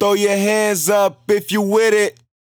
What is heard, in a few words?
Throw Yo Hands Up, 140 BPM, Dubstep Vocals; Cymatics; Dubstep Starter Pack